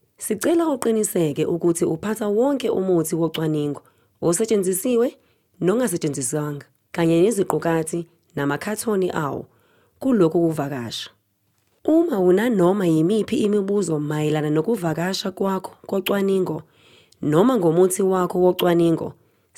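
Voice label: Zulu, voice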